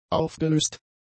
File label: "aufgelöst", German; adjectives